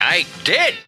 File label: "i did", old man; Voice